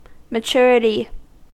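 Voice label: "maturity", American English; pronunciations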